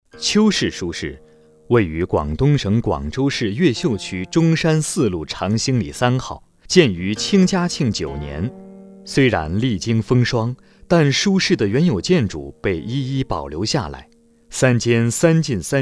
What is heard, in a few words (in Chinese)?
纪录片旁白; 走进邱氏书室